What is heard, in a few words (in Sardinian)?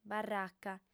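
"barraca", female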